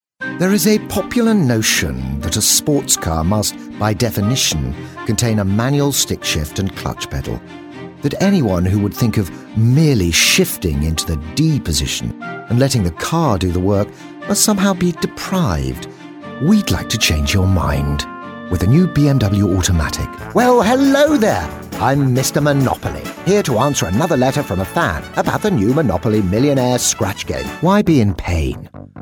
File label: Commercial